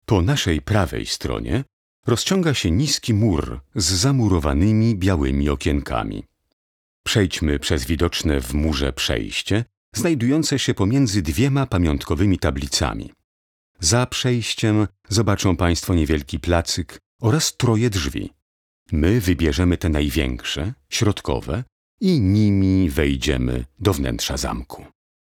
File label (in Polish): Lektor; Studio Nonagram